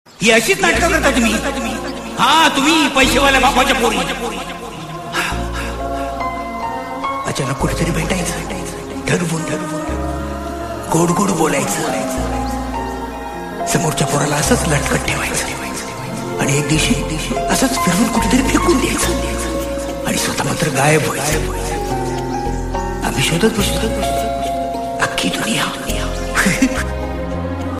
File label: marathi dialogue; ashok saraf